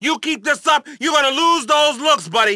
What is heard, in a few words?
FAT COP BLACK DRAW BATON; GTA IV